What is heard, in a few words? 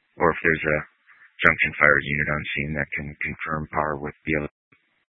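Incident Tac 2, Scanner audio recording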